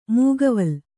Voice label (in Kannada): "ಮೈಗಾವಲು", Kannada